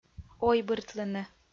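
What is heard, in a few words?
"ойбыртлыны", Komi, female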